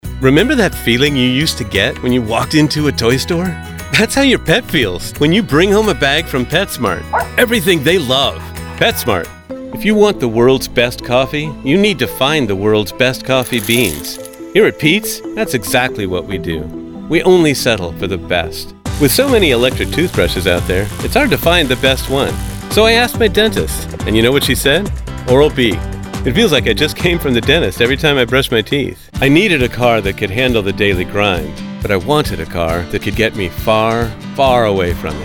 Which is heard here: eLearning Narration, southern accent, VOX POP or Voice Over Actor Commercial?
Voice Over Actor Commercial